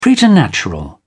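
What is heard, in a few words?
"preternatural", British English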